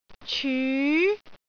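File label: "cyu2", Cantonese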